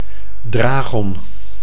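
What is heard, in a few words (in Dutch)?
"dragon", Dutch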